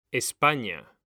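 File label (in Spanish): "España", Spanish; mekanta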